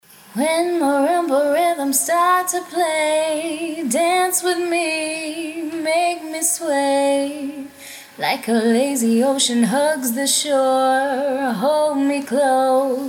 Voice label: vox tweaked; reducing room sound tubbiness in a vocal track